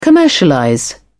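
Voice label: "commercialize", English, British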